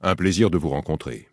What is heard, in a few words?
human, male; PhoenixCraft-1.16.5; PhoenixNPCPack; minecraft